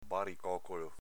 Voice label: "baricocolo", dialetto veneto

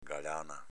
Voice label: "galana", Veneto; s.f. ceffone

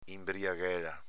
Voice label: "inbriaghela", Veneto

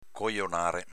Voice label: "cojonare", dialetto veneto

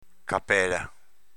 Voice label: "capela", dialetto veneto

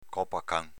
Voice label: "copacan", dialetto veneto

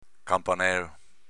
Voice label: "canpanelo", dialetto veneto; s.m. campanello

